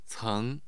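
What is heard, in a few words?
"ceng", Chinese, second tone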